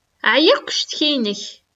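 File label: Kabyle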